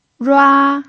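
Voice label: "rua" Mandarin Chinese, first tone, pinyin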